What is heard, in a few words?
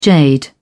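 "jade", British English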